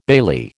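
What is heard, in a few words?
"bailey", American English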